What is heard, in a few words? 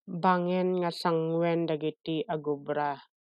Ilocano; hazards that workers face - bangen nga sangwen dagiti agubra